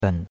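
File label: "ben3", Chinese; Cours-de-Chinois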